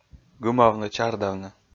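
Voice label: "гымавны-чардавны", Komi-Zyrian, male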